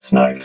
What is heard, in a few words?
"sniped", robot